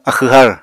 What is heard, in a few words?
"axw har", apatani